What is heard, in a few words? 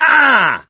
hurt major, mechanic; source engine; left 4 dead 2